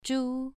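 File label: "zhu2", Chinese pronunciation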